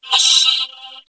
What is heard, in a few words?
MACHINE, VOCODA SPEECH; Zero-G Ambient 1